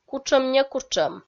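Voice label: "кутшӧм-некутшӧм" Komi, female; kpv audio dict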